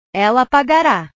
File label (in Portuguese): "ela apagara", portugues